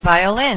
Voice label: voc violin